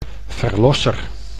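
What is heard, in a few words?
"verlosser", Dutch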